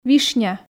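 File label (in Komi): "вишня", Komi, female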